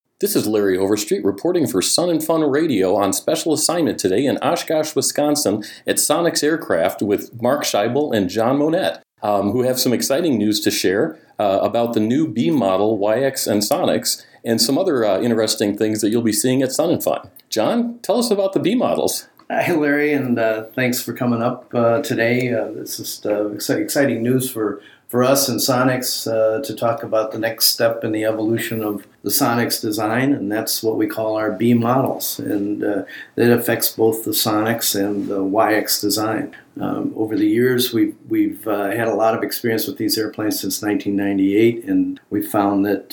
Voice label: Interview; SnF2016; Sonex; Pre-SnF; Model B